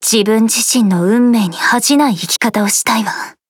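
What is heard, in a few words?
start, Japanese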